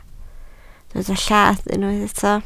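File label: "llath" Welsh, spoken word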